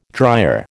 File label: "dryer", American